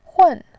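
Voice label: "hun", Mandarin, fourth tone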